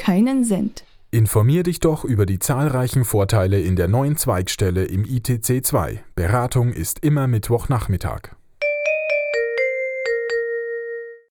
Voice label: Werbung Sparkasse

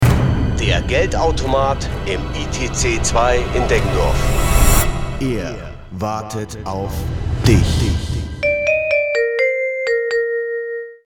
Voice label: Werbung Reminder; Sparkasse

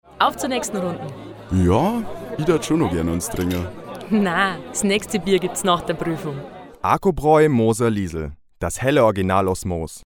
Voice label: Werbung Reminder; Acrobraeu

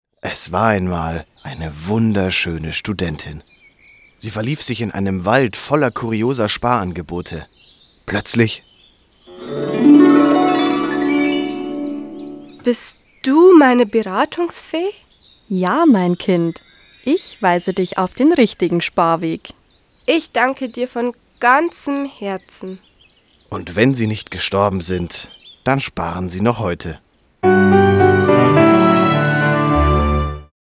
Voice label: Werbung Sparkasse

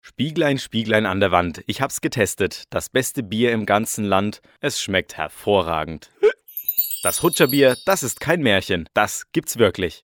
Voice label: Werbung Reminder

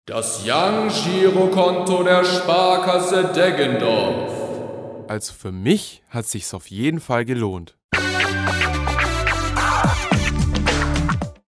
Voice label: Werbung Reminder; Sparkasse

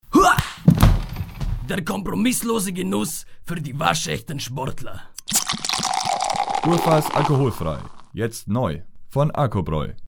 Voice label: Werbung Reminder; Arcobraeu

